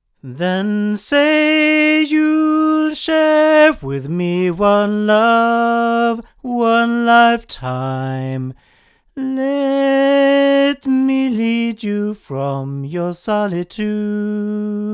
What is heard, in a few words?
male; Oktava MK220; microphones